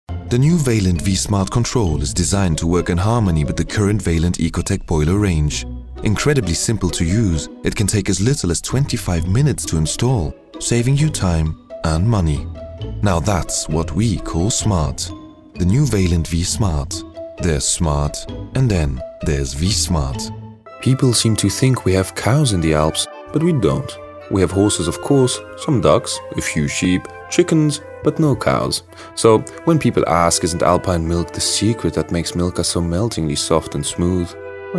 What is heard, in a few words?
English Central European accented